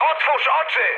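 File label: player friendly fire, mood high, Polish speech; War Thunder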